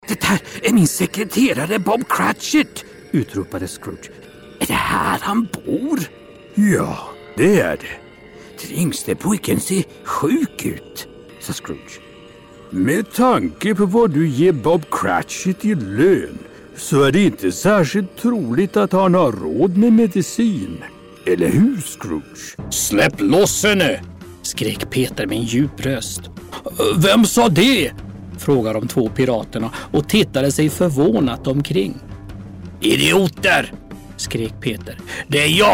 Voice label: swedish stories